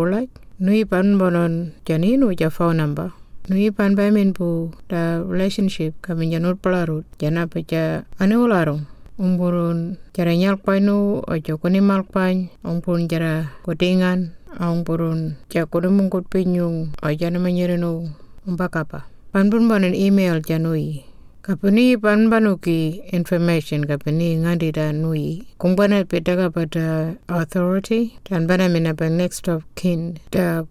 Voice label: Burial Notification Maung; The Decision Maker; burial and cremation; information recorded in 18 aboriginal languages; Burial and Cremation Act 2022